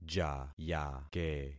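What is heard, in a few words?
"jayake", concatenated syllables; Affixing experiment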